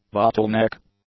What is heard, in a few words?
"bottleneck", English; nouns